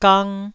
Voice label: "gang1", Taiwanese